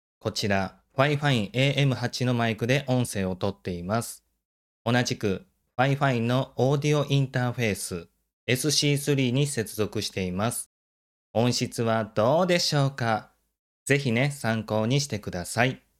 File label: fifine am8w